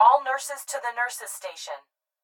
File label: Hospital Pa Voice; Blastwave